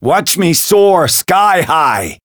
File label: Voice chant